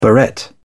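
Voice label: "barrette", British English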